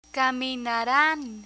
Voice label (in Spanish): "caminaran", Spanish; spanish future indicative intro; learn spanish